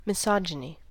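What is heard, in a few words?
"misogyny", US English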